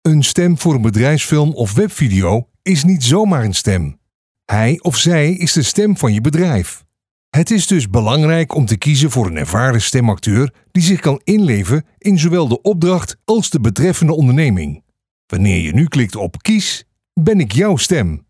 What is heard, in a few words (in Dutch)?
BEDRIJFSFILM